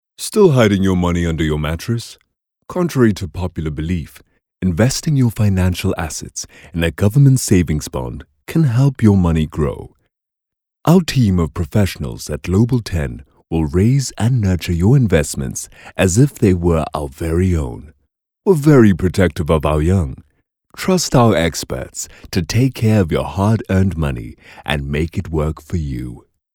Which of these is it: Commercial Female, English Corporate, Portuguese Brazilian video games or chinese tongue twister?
English Corporate